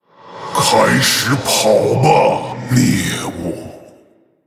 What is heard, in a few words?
Male Orc, Start running, prey.; ▶开始跑吧，猎物！; zh; 死亡猎手雷克萨; 问候; Greeting